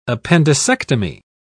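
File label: "appendicectomy", British English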